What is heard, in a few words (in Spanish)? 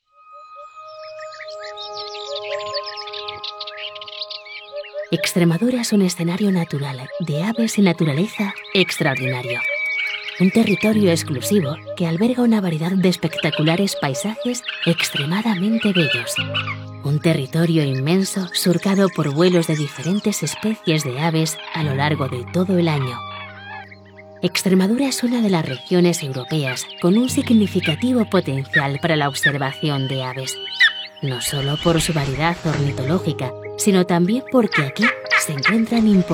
Demo documental con musica, Locutora profesional Online en español / Female VoiceOver; Locutora especializada en vídeos corporativos, e-learning y audiolibros